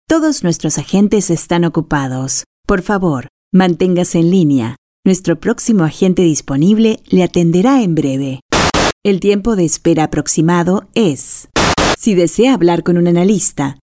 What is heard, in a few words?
Spanish IVR; AdvantageIVR